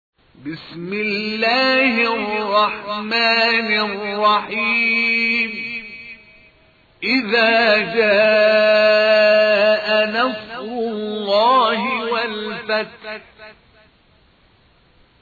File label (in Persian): ترتیل; عبدالباری محمد; سوره نصر